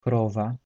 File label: "cow", polish